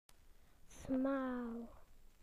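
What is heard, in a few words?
"narrow", British English; PictureDictionary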